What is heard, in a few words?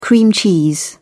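"cream cheese", English, British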